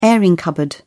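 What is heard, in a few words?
"airing cupboard", British English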